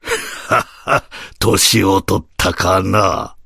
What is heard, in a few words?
voice, dubbing